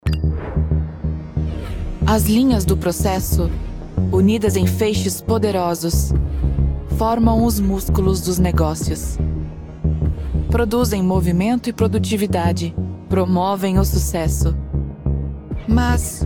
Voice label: corporate presentation tech cool ai female brazilian portugue; sap s4hana